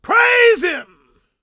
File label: preachin, gospel preacher